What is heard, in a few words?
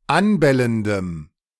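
"anbellendem", German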